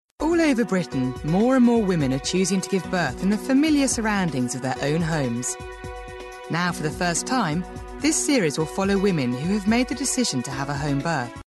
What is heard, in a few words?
documentary